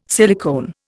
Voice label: "silicone", Indian